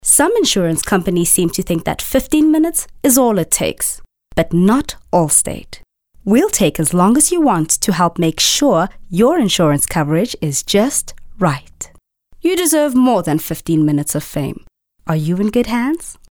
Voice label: Corporate